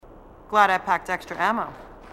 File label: marine sassy